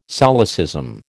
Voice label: "solecism", American